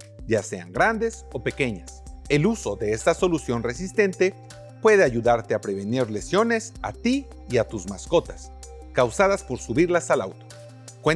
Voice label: Easy Ramp Spanish